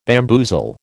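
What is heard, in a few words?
"bamboozle", American English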